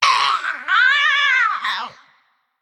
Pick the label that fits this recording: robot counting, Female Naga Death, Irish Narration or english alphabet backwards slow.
Female Naga Death